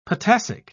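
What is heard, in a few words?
"potassic", British English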